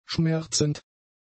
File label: "schmerzend", German; adjectives